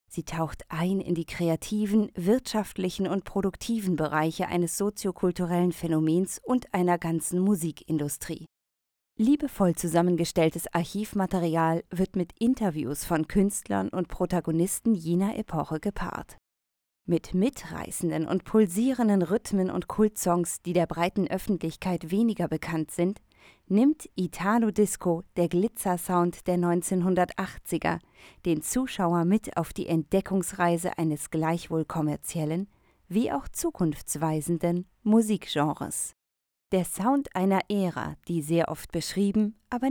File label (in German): Dokumentation